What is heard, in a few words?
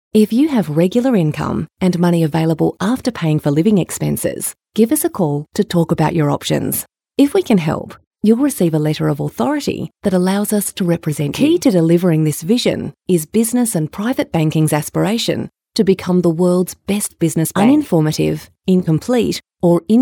Corporate